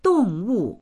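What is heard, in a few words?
"dong4wu4", Mandarin Chinese; 动物; 動物; dictionary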